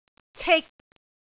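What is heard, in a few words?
"cik3", Cantonese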